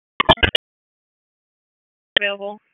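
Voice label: EMS Dispatch, p25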